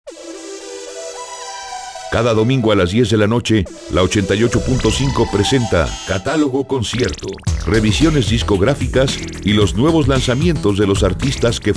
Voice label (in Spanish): promo